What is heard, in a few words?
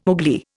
"Ugli", Indian